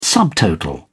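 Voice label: "subtotal", British English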